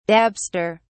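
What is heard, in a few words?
"dabster", British English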